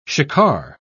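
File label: "shikar", British English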